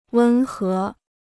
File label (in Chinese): "温和", Chinese